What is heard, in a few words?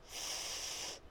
th unvoiced